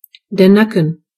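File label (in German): "neck", German; gcompris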